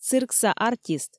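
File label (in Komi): "циркса артист", Komi, female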